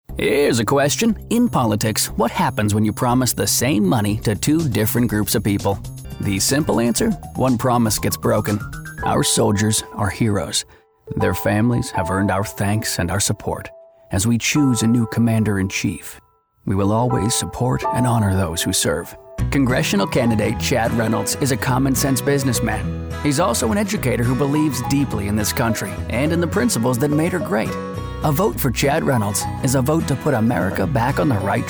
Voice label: Political, en-NZ